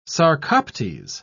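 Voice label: "sarcoptes", British English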